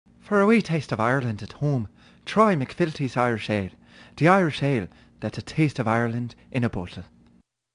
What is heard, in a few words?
Irish accent commercial; McFilthy's Irish Ale